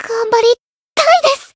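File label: Voice variable chant, Japanese; yuna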